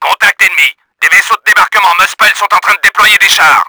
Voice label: ENEMY ENGAGED, french; Battle Engine Aquila; XBOX

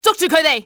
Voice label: get them, cantonese; XBOX; enemy

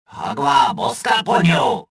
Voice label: suspicious, rodian

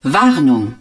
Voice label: HUD Warning, german

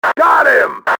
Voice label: got him, radio male; XBOX

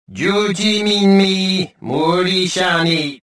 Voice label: detected, rodian

